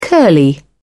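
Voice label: "curly", British English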